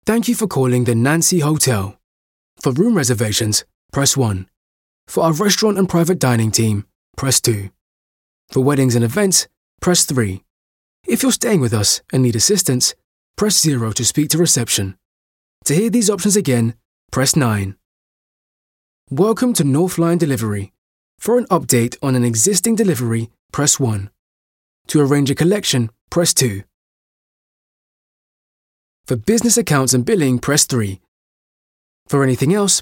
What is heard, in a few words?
telephone ivr